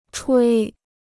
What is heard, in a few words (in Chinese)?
"吹", Chinese